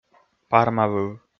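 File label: "пармавыв", Komi, male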